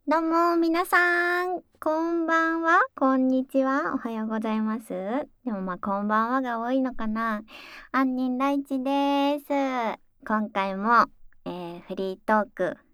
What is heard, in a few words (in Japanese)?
フリートーク 音声; 【フォーリーサウンド】耳かきASMR✕裏オプ援交～人気耳かき店の看板JKとリピ限裏メニューで恋人同棲生活してみたら～